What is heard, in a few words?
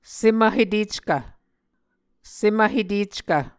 "sem vheteckv", Muscogee